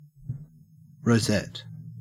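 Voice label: "rosette", Australian English; pronunciations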